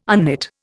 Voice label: "unknit", Indian